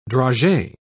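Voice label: "dragee", British English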